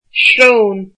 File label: nose, irish